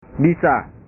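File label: "bisa", Indonesian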